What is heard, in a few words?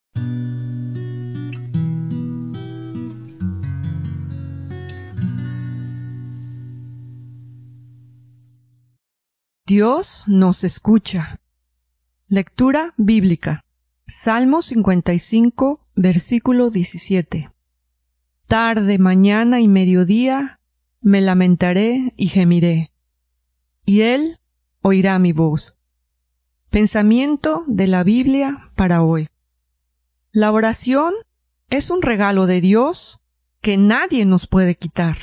devociones devotions spanish latin america